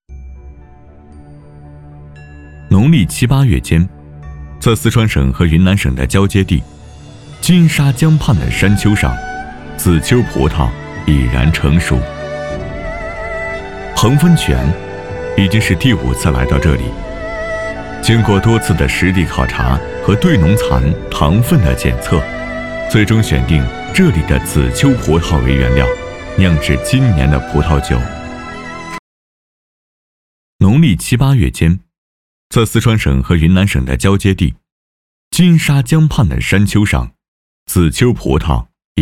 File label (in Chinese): 男 专题 人物